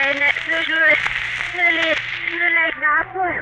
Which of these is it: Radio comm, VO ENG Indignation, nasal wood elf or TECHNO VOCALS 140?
TECHNO VOCALS 140